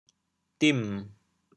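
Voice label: "dim3", Teochew, chaoyang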